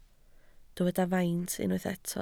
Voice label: "faint", Welsh

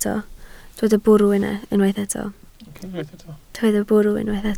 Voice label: "bwrw", Welsh

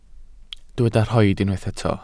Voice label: "rhoid", welsh

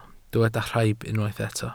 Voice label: "rhaib", welsh

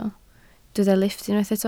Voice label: "lifft", Welsh

